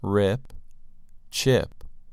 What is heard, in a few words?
"rip" "chip"